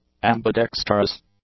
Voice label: "ambidextrous", English; adjectives

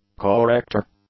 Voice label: "corrector", English; nouns

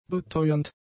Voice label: "beteuernd", German; adjectives

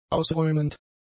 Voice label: "ausräumend", German; adjectives

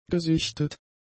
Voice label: "gesichtet", German; adjectives

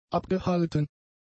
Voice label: "abgehalten", German; adjectives

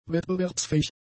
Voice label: "wettbewerbsfähig", German; adjectives

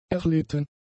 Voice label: "erlitten", German; adjectives

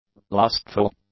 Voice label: "lustful", English; adjectives

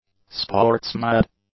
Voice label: "sports-mad", english; adjectives

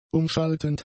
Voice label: "umschaltend", German; adjectives

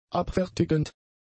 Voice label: "abfertigend", German; adjectives

